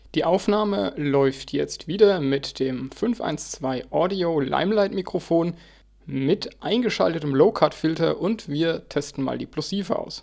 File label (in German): audio limelight plosive low cut